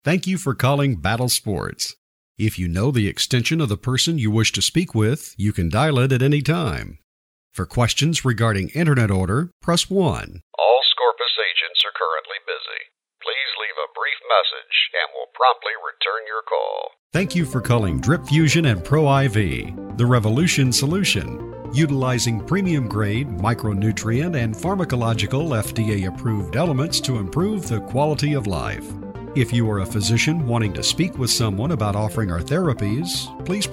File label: Phone IVR